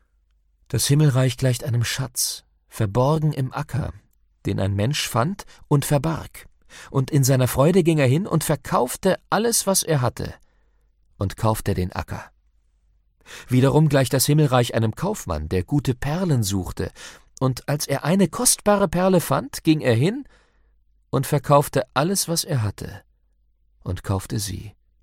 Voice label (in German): Lesung; Matthaeus 13; Vom Schatz im Acker und der kostbaren Perle; patmos-gemeinde; Lesung des Evangeliums